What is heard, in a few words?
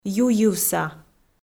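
"юйывса", Komi, female; kpv audio dict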